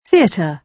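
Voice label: "theatre", British English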